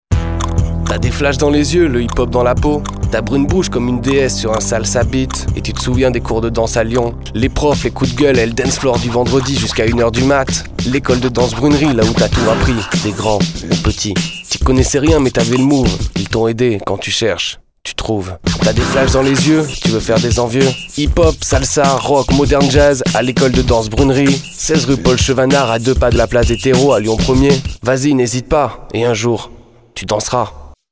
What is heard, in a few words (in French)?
radio pub spot